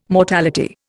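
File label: "mortality", Indian English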